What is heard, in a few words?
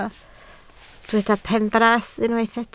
"benfras", Welsh